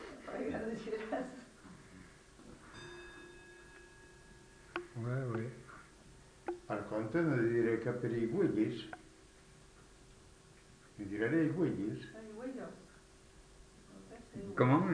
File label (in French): patois différentes prononciations